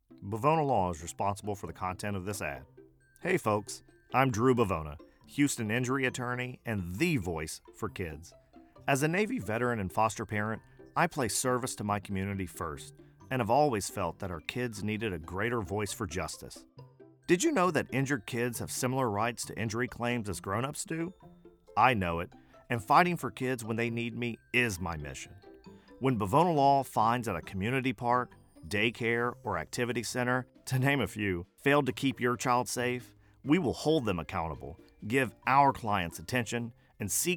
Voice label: Radio